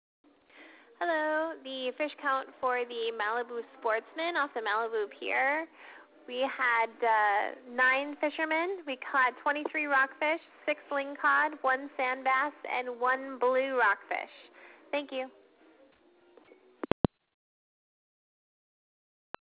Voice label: voice message